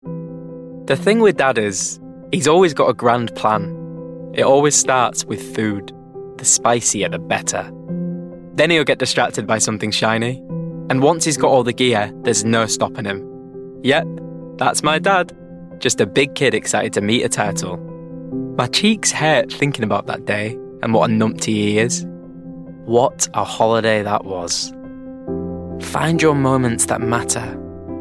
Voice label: Commercials; home studios

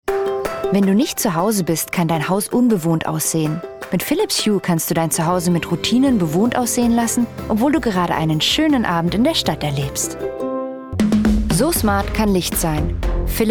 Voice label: German

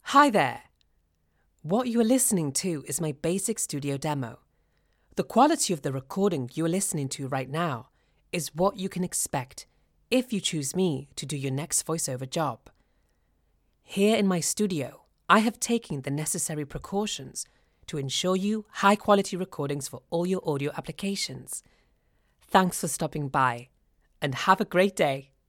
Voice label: Home Studio Recording

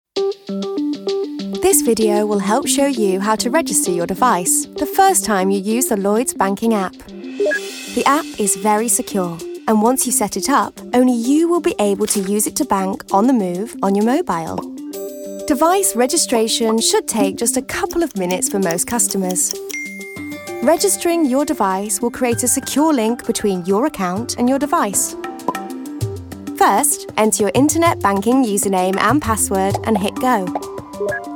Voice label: narrative; 20s-30s; Female; Lincolnshire; Narration

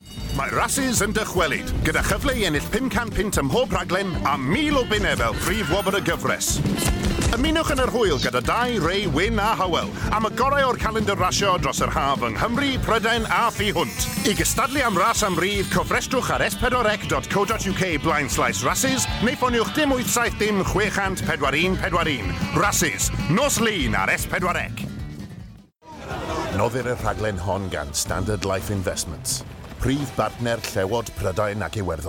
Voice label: Welsh; Male; 20s-30s

